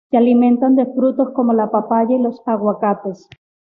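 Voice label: "aguacates", Spanish; mozilla voice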